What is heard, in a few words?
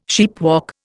"sheepwalk", Indian